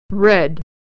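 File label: "red", American English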